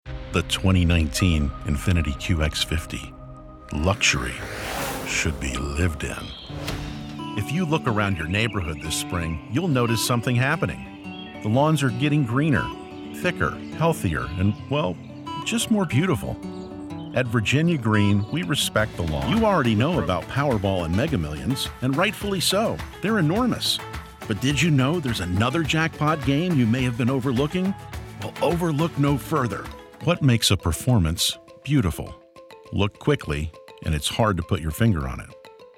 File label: commercial